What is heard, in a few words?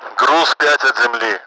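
Russian speech, Mi-8MT; Messages cargo five ground; DCS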